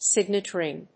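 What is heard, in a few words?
"signet ring", English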